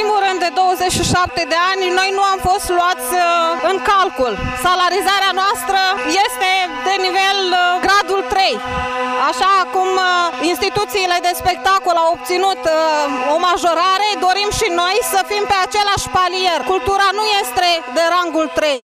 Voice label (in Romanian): vox pop muzeografi